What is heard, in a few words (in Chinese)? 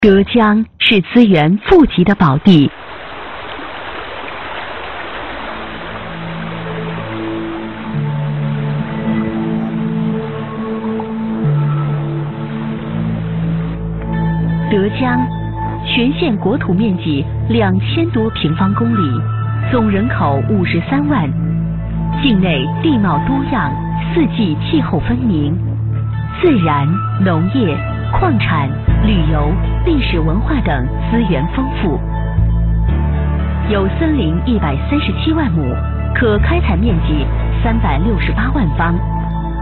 专题 女